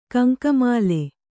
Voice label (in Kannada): "ಗಂಗಾಮಾಳ", Kannada